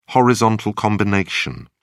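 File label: "horizontal combination", British English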